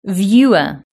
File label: "viewer", british english